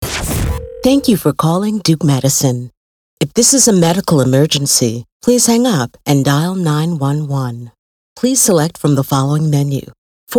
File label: IVR; Voiceover for Telephone Systems and IVR